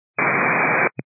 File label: 122.925 MHz; scanner radio